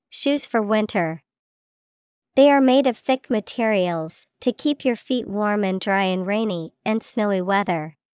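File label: boots, English vocabulary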